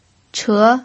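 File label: "che", pinyin, second tone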